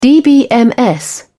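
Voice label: "dbms", en, British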